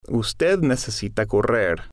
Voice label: usted Necesita Correr, Spanish